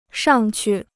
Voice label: "上去", Chinese

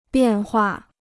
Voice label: "变化", Chinese

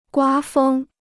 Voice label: "刮风", Chinese

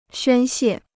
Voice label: "宣泄", Chinese

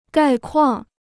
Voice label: "概况", Chinese

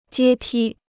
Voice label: "阶梯", Chinese

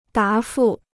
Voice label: "答复", Chinese

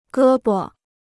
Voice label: "胳膊", Chinese

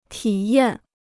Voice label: "体验", Chinese

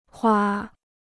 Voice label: "花", Chinese

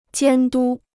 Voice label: "监督", Chinese

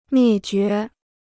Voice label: "灭绝", Chinese

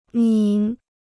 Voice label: "拧", Chinese

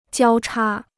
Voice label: "交叉", Chinese

